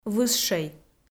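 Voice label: "высшӧй", Komi, female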